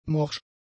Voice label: "morsch", German; adjectives

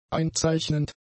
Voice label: "einzeichnend", german; adjectives

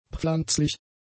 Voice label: "pflanzlich", German; adjectives

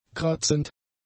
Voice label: "kratzend", German; adjectives

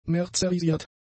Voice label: "merzerisiert", German; adjectives

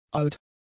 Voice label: "alt", German; adjectives

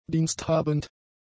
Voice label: "diensthabend", german; adjectives

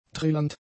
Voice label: "trillernd", German; adjectives

